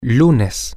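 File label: Monday, Spanish, male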